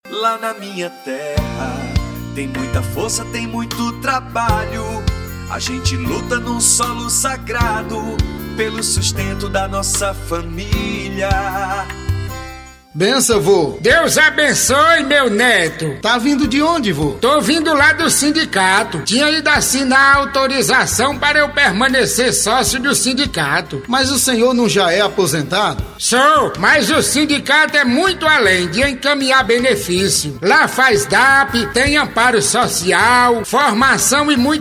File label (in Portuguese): Spot Dialogo; Campanha de Sindicalizacao